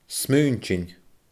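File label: "smaointinn", Scottish Gaelic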